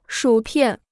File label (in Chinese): "薯片", Chinese